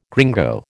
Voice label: "gringo", American